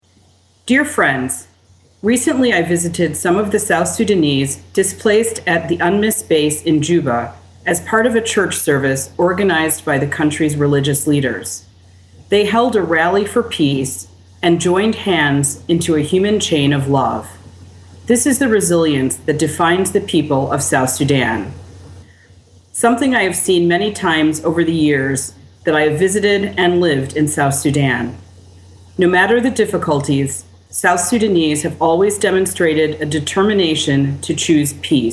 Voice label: Statement English